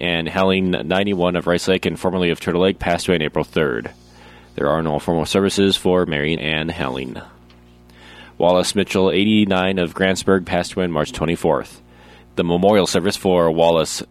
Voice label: Funeral Announcements